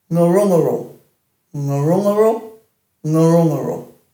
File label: "ngurungaru", Dhurga